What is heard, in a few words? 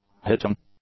"hitting", English; nouns